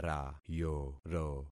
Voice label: "rayoro", concat syllables; Affixing experiment